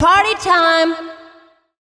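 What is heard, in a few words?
vocal female, Dance Vocals, spoken words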